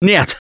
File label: grabbed by smoker, russian, Survivor Voice; Gambler